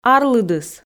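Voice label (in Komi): "арлыдыс", Komi, female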